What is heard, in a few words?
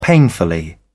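"painfully", British English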